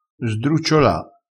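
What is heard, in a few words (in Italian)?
"sdrucciola", dialettale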